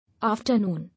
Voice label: "Afternoon", English